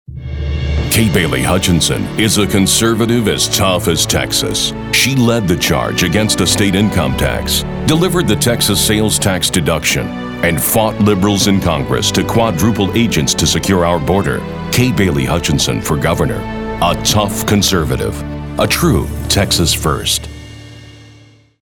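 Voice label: political, voice-over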